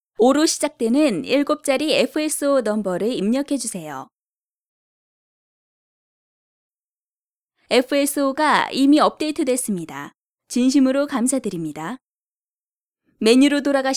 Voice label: Korean; foreign languages